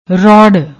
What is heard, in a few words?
"rorr", Punjabi